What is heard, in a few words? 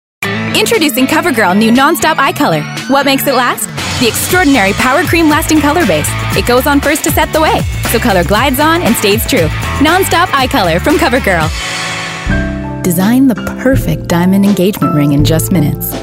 Commercial